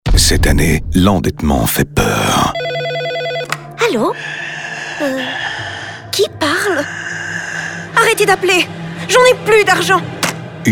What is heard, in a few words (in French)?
Publicite, SOS Dettes